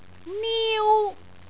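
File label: "niu1", Cantonese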